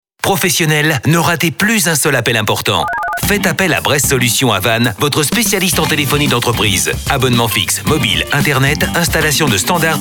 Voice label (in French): spot radio